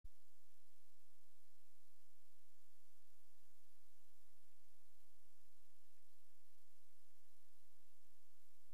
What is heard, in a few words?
ATC RECORDING